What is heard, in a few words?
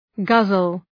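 "guzzle", English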